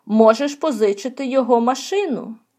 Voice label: dialogue, Ukrainian